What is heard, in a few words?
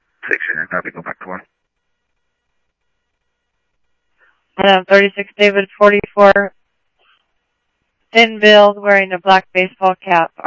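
Mesa Simulcast Police Department; MESA PD 2 Centrl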